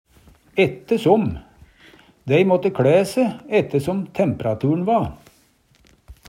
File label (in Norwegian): "ette såm", Numedalsmål; etter som